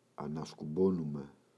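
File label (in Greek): "ανασκουμπώνουμαι", Greek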